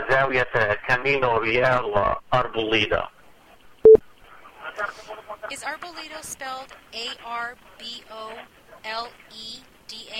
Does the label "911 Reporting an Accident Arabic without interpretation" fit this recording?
Yes